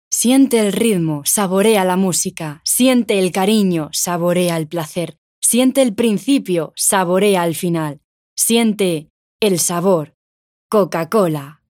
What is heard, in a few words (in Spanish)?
Cuña; doblaje